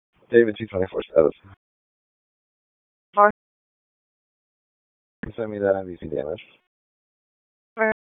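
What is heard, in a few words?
Police Northeast District Dispatch, Raleigh; p25; wake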